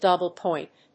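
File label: "DOUBLE POINT", English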